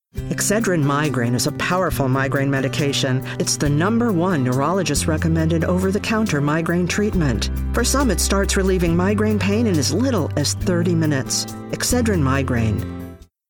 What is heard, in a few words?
Commercial; Excedrin